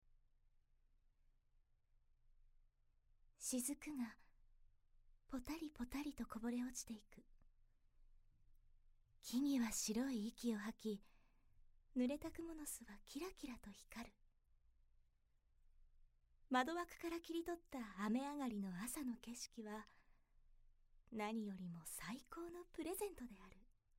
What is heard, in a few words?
narration